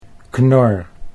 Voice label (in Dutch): "knor", Dutch